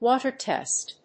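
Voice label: "water test", English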